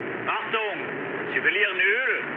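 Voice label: German damaged oil mood med, speech; War Thunder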